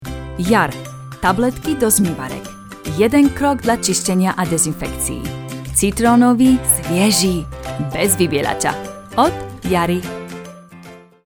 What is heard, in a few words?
polish